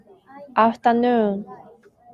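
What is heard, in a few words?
"afternoon", Japanese, female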